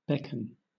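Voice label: "beckon", British English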